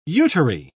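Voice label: "uteri", British English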